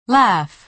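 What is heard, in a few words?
"laugh", American English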